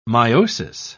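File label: "myosis", British English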